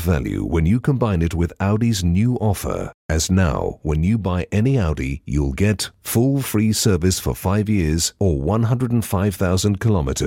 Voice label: telephone on hold